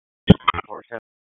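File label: Wake County EMS Dispatch, p25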